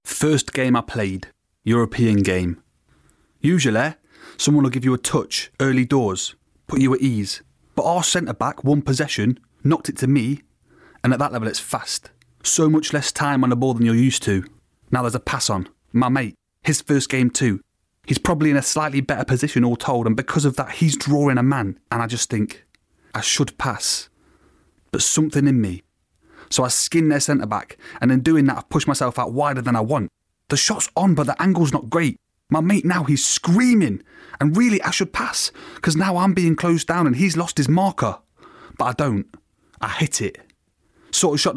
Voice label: audiobook